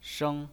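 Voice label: "sheng", Mandarin Chinese pinyin, first tone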